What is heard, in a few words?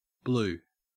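"blue", Australian English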